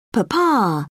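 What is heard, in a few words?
"papa", British English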